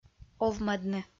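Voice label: "овмӧдны", Komi, female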